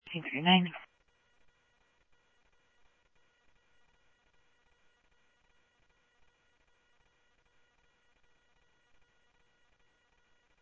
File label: Mesa Simulcast, MESA PD, scanner audio